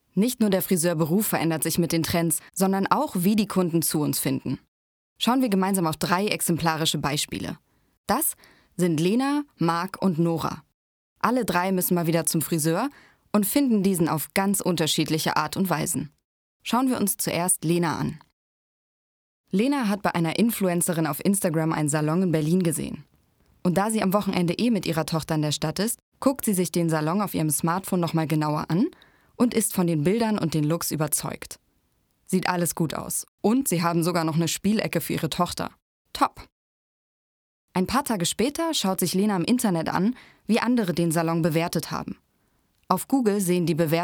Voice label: Voice Over